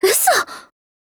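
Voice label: Japanese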